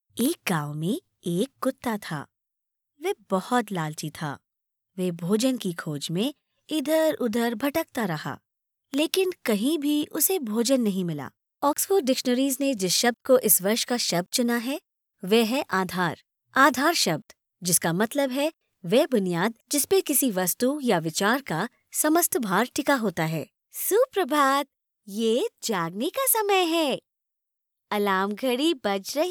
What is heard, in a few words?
Hindi